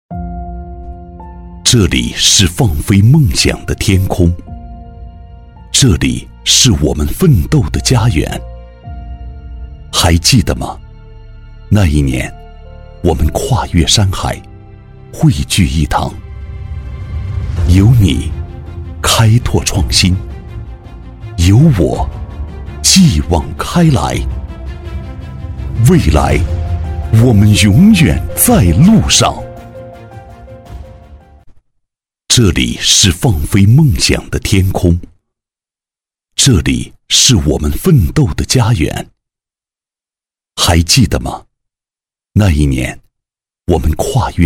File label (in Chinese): 男 走心 积极向上; 大气激情 质感磁性; 在路上